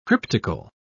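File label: "cryptical", British English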